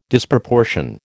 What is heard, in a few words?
"Disproportion", American